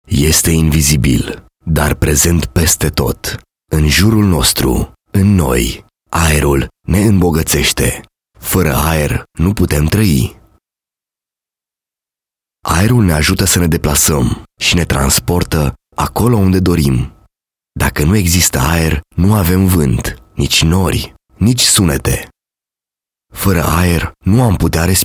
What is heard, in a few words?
male, romanian